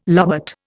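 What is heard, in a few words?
"lobate", Indian English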